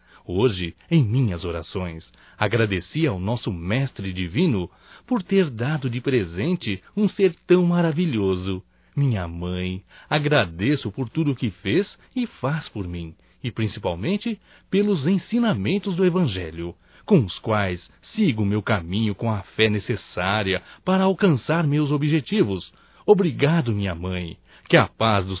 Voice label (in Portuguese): Mãe Masculino, Natal; Telemensagens 2017; Natal & Ano Novo